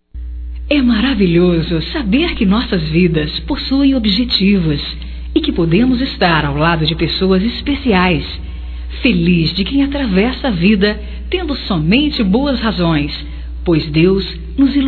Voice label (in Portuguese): Amizade Geral Feminino, Telemensagens, Só Voz; Telemensagens 2017